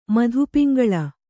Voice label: "ಮಧುಪಿಂಗಳ", Kannada